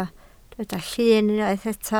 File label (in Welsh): "llyn", Welsh